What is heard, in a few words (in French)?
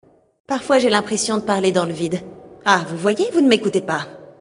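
Female Blague, voix françaises; Elfe du Vide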